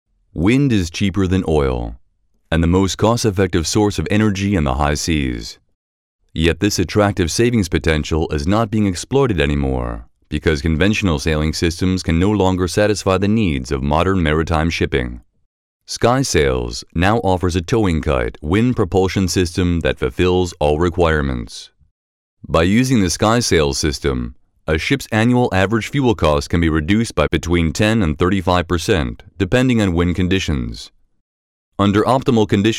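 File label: American English, Kommentar Variabel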